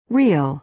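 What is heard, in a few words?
"real", American English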